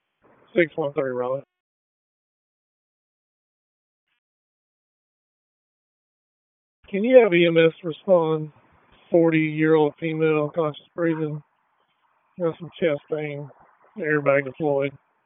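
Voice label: Police Dispatch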